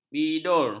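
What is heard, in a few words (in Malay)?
"bidur", Malay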